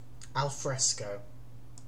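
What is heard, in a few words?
"alfresco" British English; pronunciations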